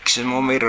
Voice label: "kimomeru", minamiyamagata

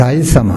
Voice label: "raisama", minamiyamagata

